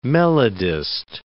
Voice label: "melodist", English